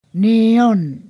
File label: "that short", Ponosakan